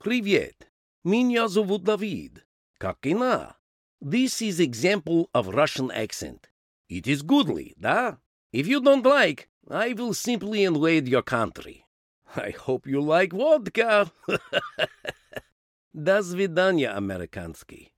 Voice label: Russian